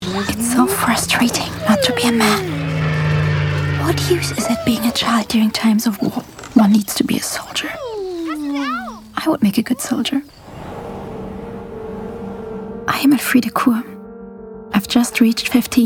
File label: EN DRAMA, voiceover; BBC Great War Diaries